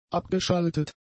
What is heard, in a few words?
"abgeschaltet", German; adjectives